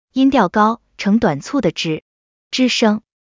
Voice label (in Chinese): 配音